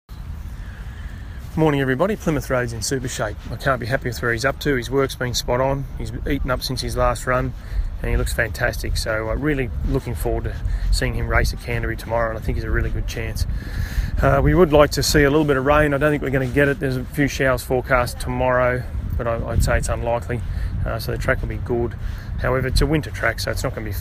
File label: voice note; Plymouth Road NZ